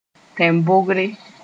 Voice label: "tẽn-bogre", Moore

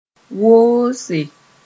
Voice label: "woose", moore

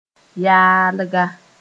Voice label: "yaalga", moore; worthless

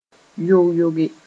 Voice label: "yog-yoge", moore

